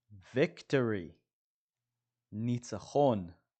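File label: "victory" English, "ניצחון" Hebrew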